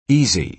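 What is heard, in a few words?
"easy", American English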